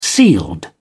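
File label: "sealed", British English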